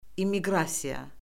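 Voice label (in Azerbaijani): "immiqrasiya", Azerbaijani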